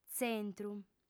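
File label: "tzentru", Sardinian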